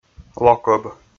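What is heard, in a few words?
"локӧб", Komi, male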